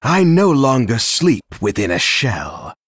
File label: Male Dragon